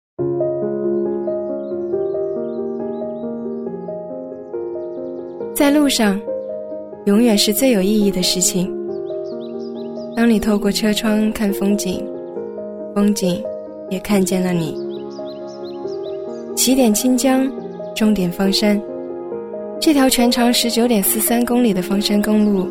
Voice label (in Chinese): 女, 旅游旁白; 方山路; 低沉走心